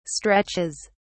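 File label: "stretches", English, British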